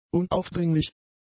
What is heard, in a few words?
"unaufdringlich", German; adjectives